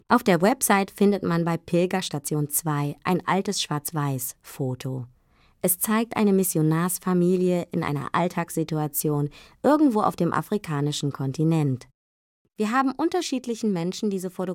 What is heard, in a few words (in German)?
Text Meditation